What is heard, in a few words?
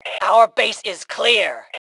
Base Clear, Female; T2 Female 04